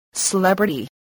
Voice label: "celebrity", spelling